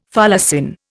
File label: "folacin", Indian